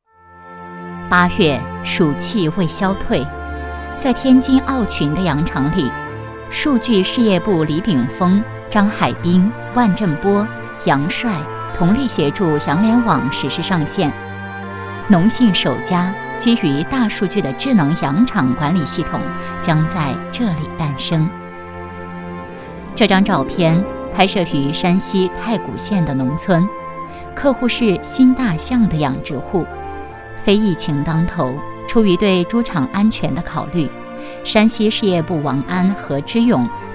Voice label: 女 专题; 人物农信人感动的十大瞬间

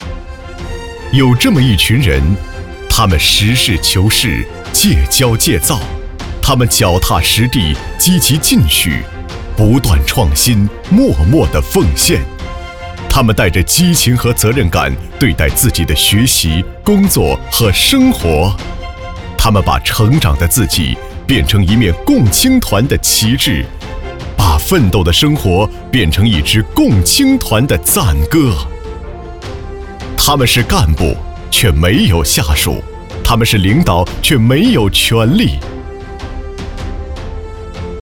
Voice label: 男,颁奖

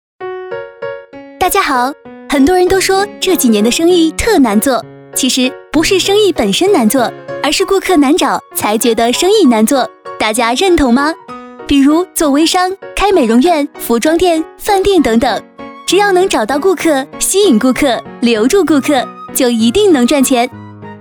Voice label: 女 飞碟MG类型; 拓客软件

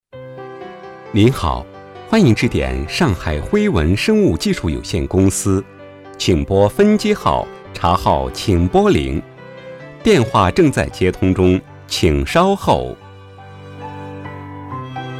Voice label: 男 彩铃; 上海辉文公司

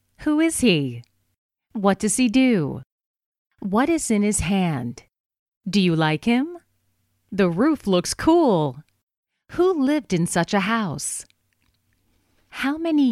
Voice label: 外籍女 英语教学课程对话

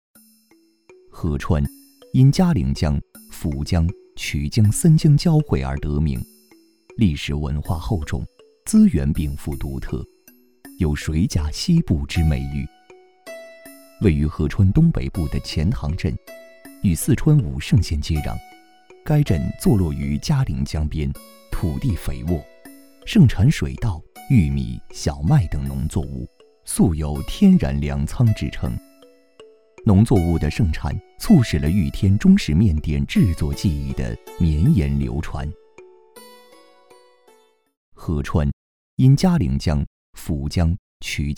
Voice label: 男 旁白 面点

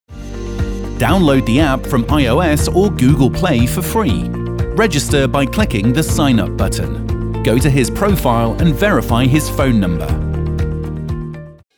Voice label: 外籍英男 软件广告 深沉力度

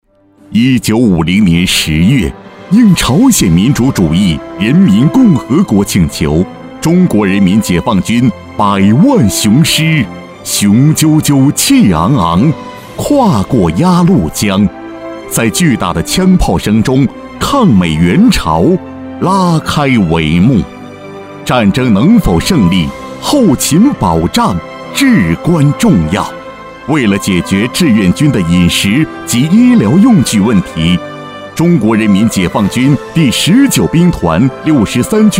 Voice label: 男 纪录片 革命精神

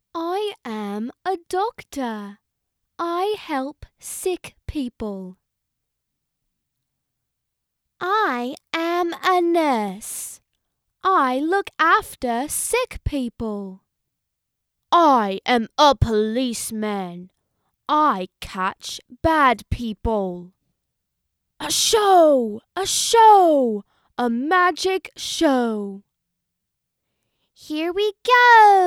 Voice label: 英式童声-多角色模仿

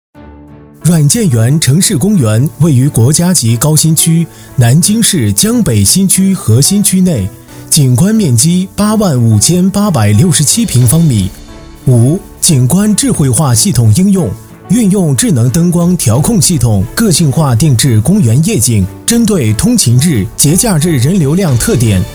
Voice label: 男 专题 项目介绍; 软件园城市公园项目工程总承包工程

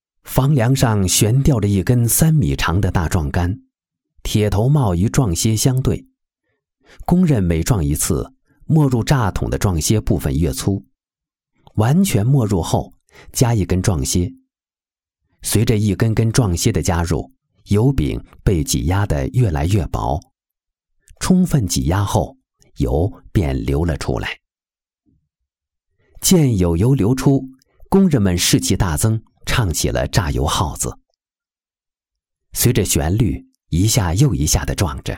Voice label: 男, 国语男声配音

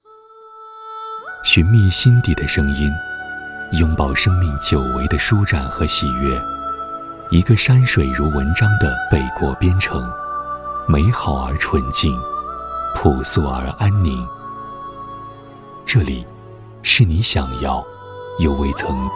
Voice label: 男 专题 城市旅游宣传

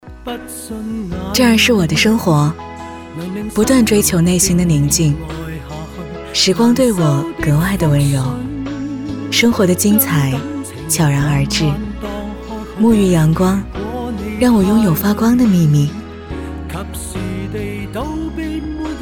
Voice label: 女 中文专题 走心

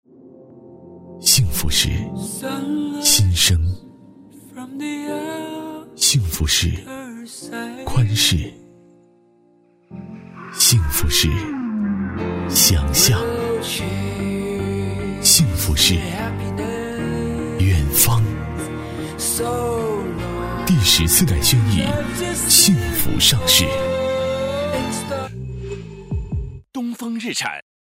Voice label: 男 广告 汽车类; 第14代轩逸

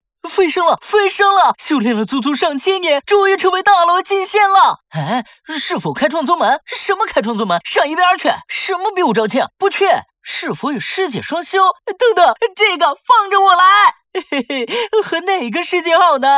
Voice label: 男 滑稽幽默 游戏广告 年轻; 以仙之名

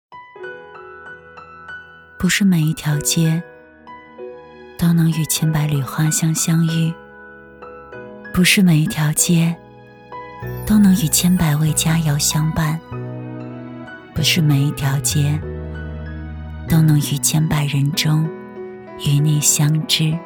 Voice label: 微电影 葡萄酒 素人风格讲述

